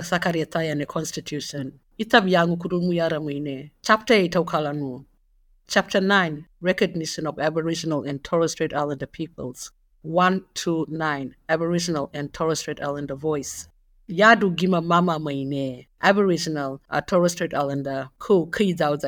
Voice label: Referendum Booklet - Consitution Alteration, Kalaw Kawaw Ya